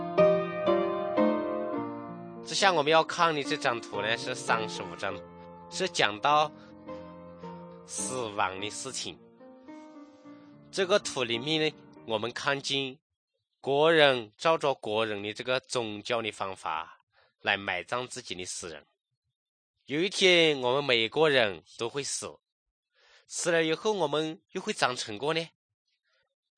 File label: good news shan, drama; kokant shan; thailand bible society nt